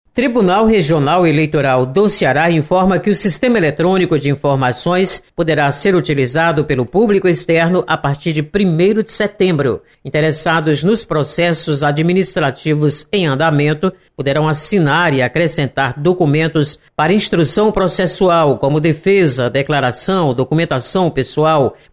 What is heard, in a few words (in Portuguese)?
notícias, radio fm; TRE / Sistema Eletrônico de Informações poderá ser utilizado por público externo; (1'09")